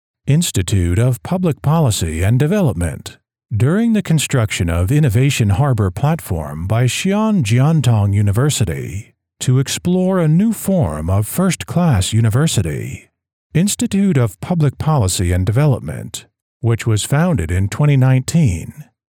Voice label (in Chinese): 英文